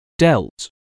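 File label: "dealt", English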